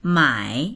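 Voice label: "mai3", Mandarin Chinese; 买; 買う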